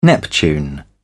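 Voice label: "neptune", British English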